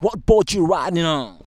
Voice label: "what boat", shouts; Best Service; Hyper Dance

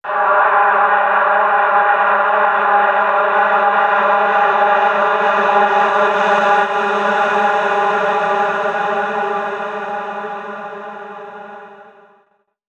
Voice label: male, voice; Mutekki Media; Dance Ultra Voice VOL-1

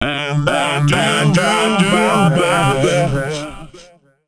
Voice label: male, voice

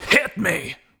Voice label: "HIT ME", SHOUTS; Best Service; Hyper Dance

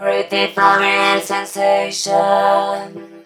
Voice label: SENSATION, Funky Vocals; USB Soundscan vol.18; AKAI; COMPUTER

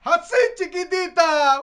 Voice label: Mex M Vox, Vocals; Roland BK-5

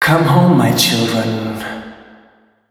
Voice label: male, voice

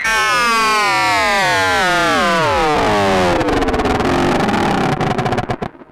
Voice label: EMS voice; Syntec; Wall of Sounds; TECHNO-BOX

